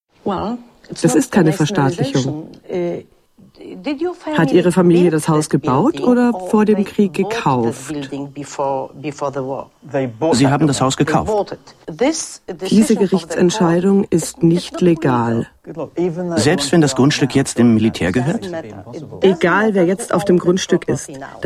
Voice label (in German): synchron; arte